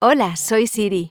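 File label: Spanish, Spain; iOS Sounds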